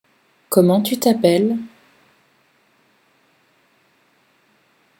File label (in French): comment tu t'appelles, pronunciation fr